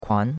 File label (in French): "kuan2", chinois; Cours-de-Chinois